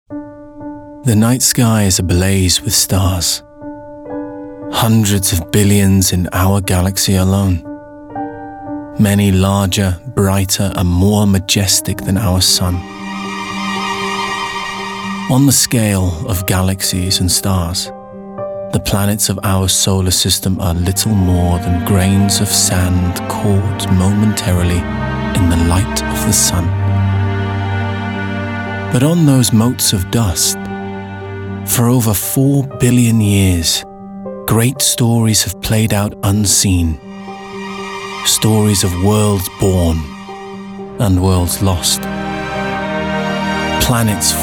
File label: DOCUMENTARY; regional accents